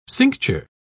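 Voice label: "cincture", British English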